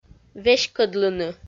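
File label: "веськӧдлыны", Komi, female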